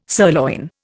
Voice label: "sirloin", Indian